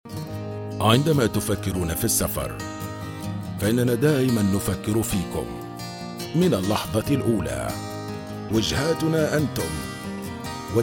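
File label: Arabic, Commercial; GoLocalise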